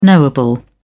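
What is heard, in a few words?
"knowable", English, British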